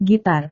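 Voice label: "gitar", Indonesian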